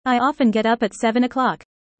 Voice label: fast